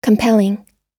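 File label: "compelling", American English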